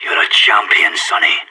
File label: good shooting, radio; SAS